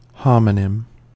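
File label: "homonym", US English; pronunciations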